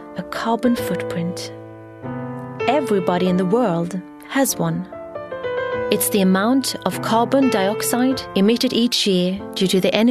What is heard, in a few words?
Swedish English, Scandinavian, Female, 20s-30s; Based in Sweden.